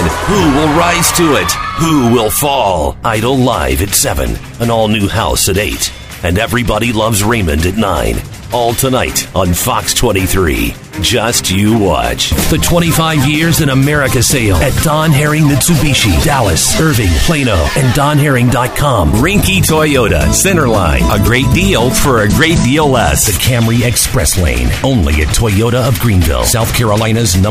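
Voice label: English American male voice commercials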